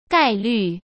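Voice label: "概率", Mandarin Chinese; hsk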